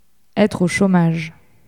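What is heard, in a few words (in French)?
"etre au chomage", French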